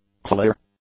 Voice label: "clear", English; adjectives